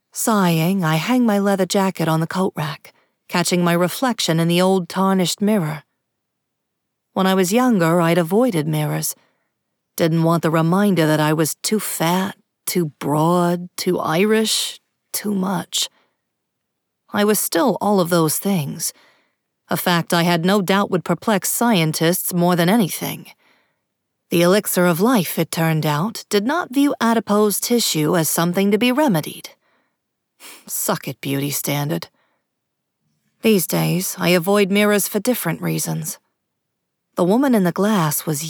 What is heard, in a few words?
Boston Accent; audiobook